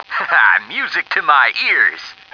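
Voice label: music to my ears, male; stars; taunts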